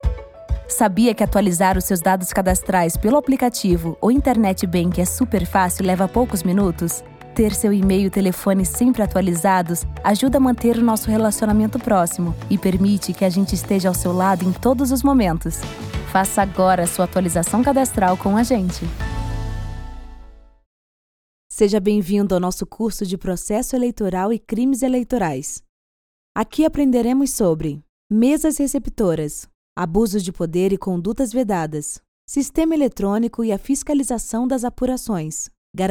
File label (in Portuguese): Treinamentos